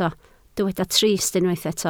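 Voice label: "trist" Welsh, spoken word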